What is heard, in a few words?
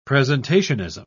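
"presentationism", British English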